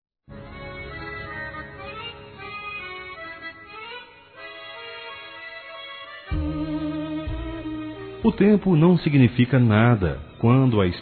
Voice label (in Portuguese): Aniversário Avô Masculino; Aniversários; Aniv Familiar